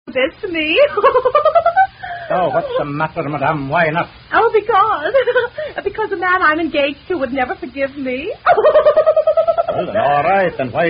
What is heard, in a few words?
Humorous Dialog; Revelation; 1930s